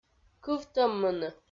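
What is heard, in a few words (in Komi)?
"кывтӧммыны", Komi, female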